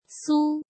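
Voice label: "Sū", Chinese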